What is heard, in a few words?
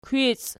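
"kwici" nominative, Archi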